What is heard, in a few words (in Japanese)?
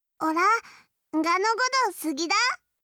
好きです 岩手; imas; 伊織